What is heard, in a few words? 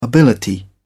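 "ability", British English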